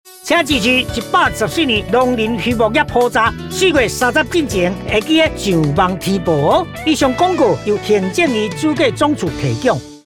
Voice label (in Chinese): 廣播 台語版; 網路填報篇